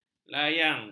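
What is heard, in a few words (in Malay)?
"layang", Malay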